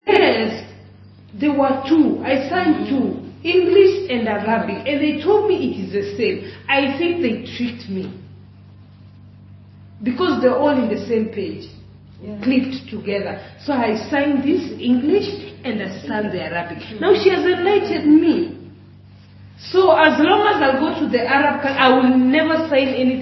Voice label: i will never sign anything in arabic